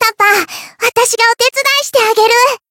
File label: limit break, jp